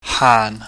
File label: "haan", chinese